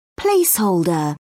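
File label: "placeholder", British English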